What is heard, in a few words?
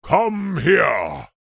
human old grumpy man english says angrily come here; zapsplat